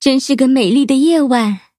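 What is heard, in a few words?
victory, Traditional Chinese; cookie run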